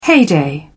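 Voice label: "heyday", English, British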